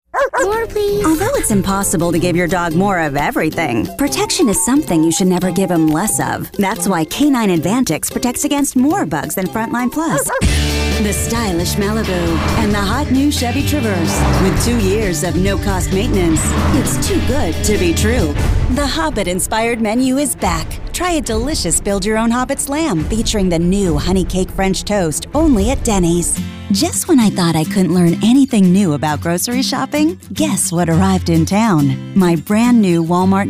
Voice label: american commercial